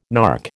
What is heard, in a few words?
"nark", American